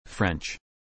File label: French